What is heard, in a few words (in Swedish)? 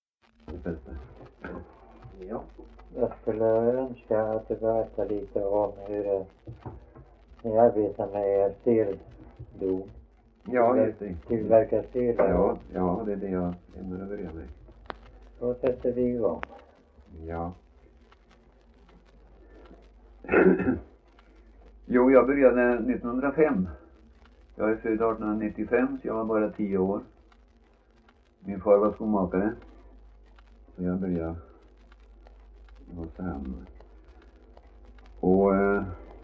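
Värö Halland, Svenska sagor dialekter; Folkminnen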